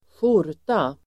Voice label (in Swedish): "skjorta", Swedish